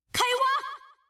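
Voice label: Traditional Chinese; 探奇（守望先锋2）; 终极技能; 開挖！; Let's dig!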